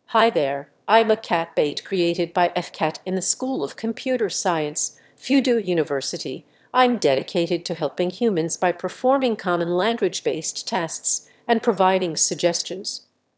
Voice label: answer, emotion